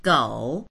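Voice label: "gou3", Mandarin Chinese; 狗; 犬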